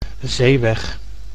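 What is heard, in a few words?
"zeeweg", Dutch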